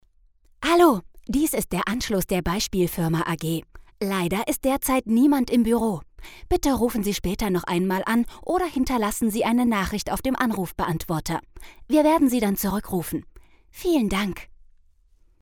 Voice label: TELEFONANSAGE; LOFT VOICES

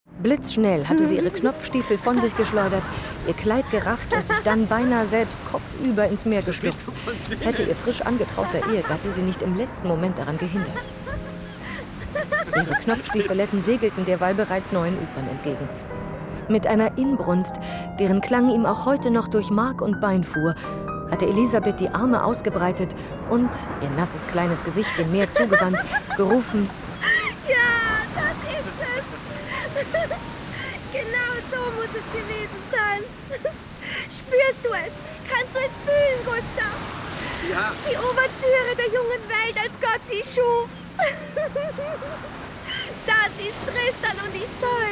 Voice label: Hörspiel; Honigtot; Audible

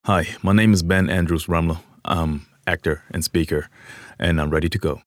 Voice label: Vorstellung EN

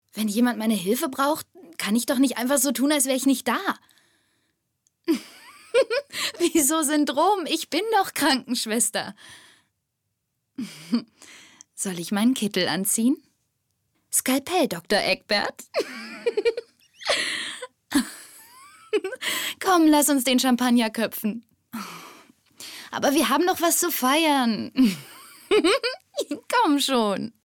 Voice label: synchron

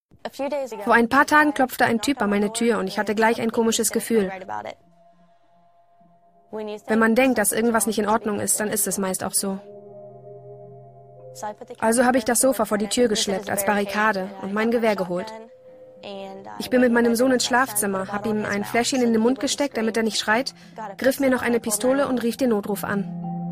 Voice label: doku; spiegel tv

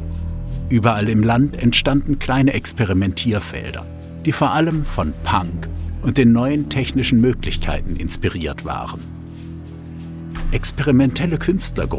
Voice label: Doku Musik Synthesizer Deutsch stereo

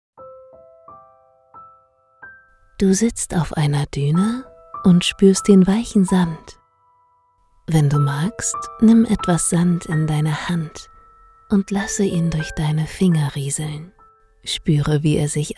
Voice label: Meditation

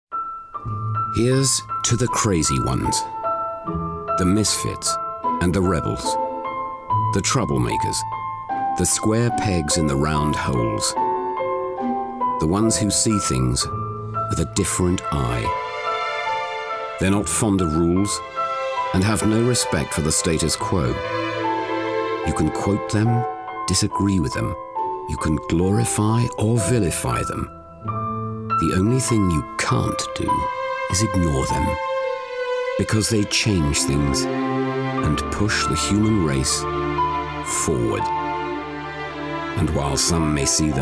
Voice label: uk englisch; voxhaus

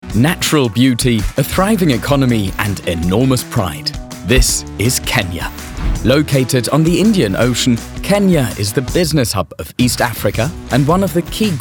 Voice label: ENGLISCH; Hapag-Lloyd Kenya; LOFT VOICES

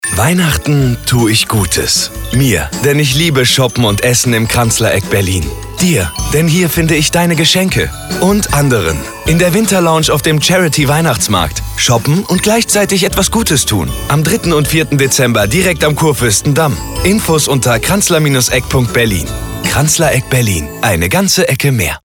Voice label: Radiowerbung; LOFT VOICES

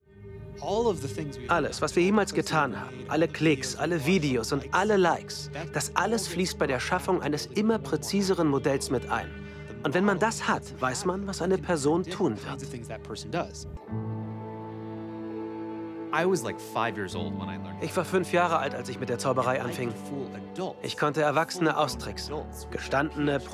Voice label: Dokumentation; Das Dilemma mit den sozialen Medien

